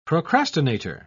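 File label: "procrastinator", English, British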